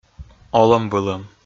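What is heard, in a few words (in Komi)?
"олӧм-вылӧм", Komi, male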